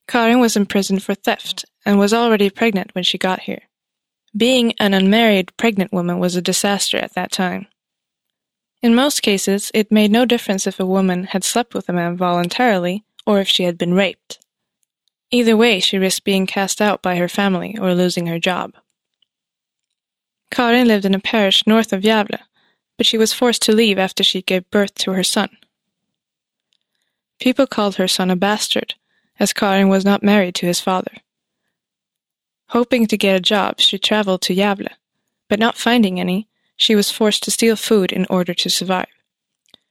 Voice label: cell, audioguider; eng